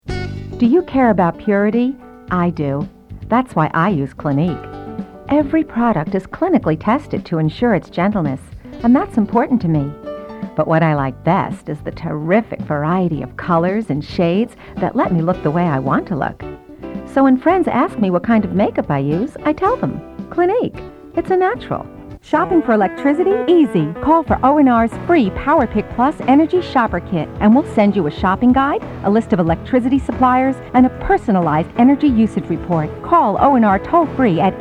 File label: VOICEOVER